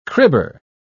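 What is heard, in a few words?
"cribber", British English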